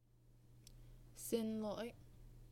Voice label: Sorry Vietnamese